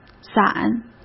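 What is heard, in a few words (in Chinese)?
"攒", Mandarin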